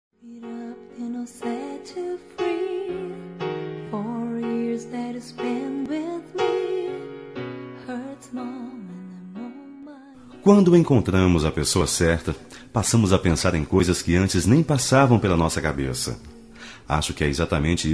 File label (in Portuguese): Romântica Com Pedido de Noivado